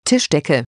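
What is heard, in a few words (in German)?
"Tischdecke", German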